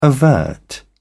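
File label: "overt", English, British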